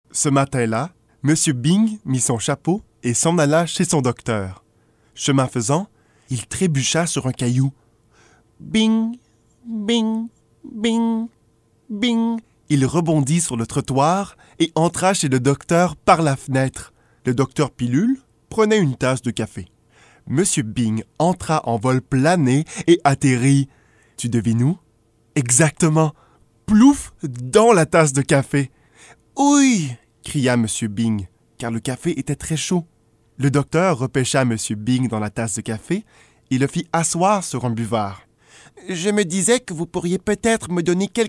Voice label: Narration